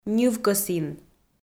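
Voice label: "ньывкӧсін", Komi, female; audio dict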